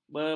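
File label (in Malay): "bekas", Malay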